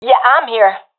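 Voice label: reporting in, professional female; vo; Counter Strike Model Pack; CS:GO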